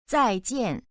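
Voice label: "zai4jian4", Chinese, female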